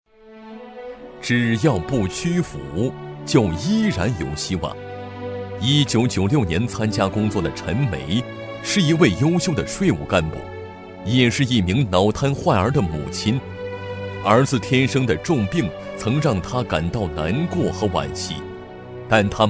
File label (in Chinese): 男 颁奖 人物颁奖讲述; 好家风